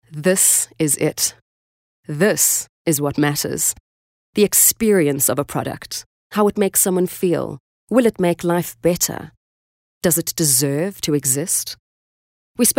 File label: English intimate